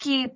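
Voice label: "gip6", Cantonese; microsoft Hiu Maan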